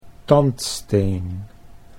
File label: "tandsteen", Dutch